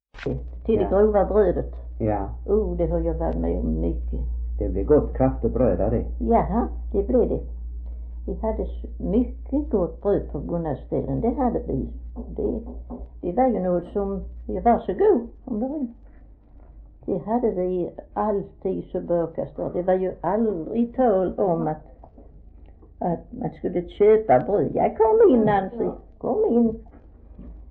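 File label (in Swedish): Fleninge Skåne, dialekter; Folkminnen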